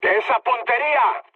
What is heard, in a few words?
player friendly fire, mood med, speech; War Thunder